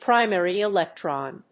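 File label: "primary electron", English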